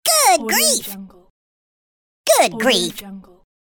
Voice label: cartoon voice good grief

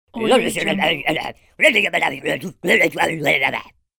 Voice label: cartoon shaman preaching

